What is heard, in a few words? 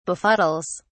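"befuddles", en, uk British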